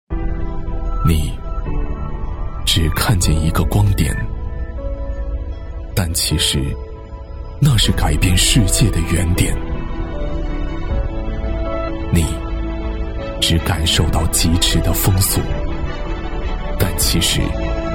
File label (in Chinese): 男 汽车广告 磁性质感, 磁性高端 大气浑厚; 光束汽车; 男1014-汽车广告【光束汽车 磁性质感】